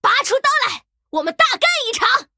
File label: Female Pirate Play